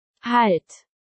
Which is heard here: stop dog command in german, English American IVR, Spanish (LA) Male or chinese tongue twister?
stop dog command in german